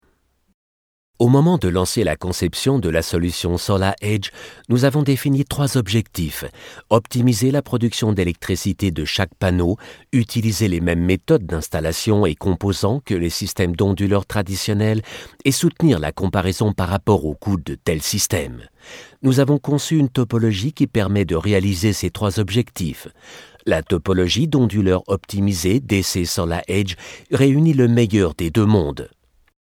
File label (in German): Imagefilm; SolarEdge